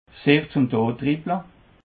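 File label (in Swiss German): "sich zum tod dribble", Alsatian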